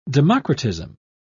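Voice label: "democratism", British English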